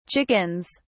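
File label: "chickens", British English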